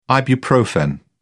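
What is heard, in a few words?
"ibuprofen", British English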